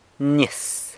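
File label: "nyis", water, San Lucas Quiaviní Zapotec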